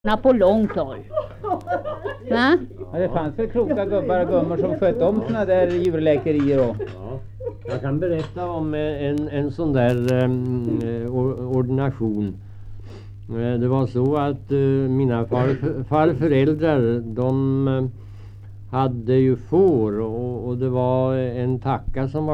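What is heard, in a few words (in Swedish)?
Riala Uppland, dialekter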